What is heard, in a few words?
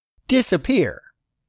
"DISAPPEAR", English; 3000 words